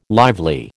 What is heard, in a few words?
"lively", American